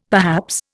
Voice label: "perhaps", Indian; Dictionary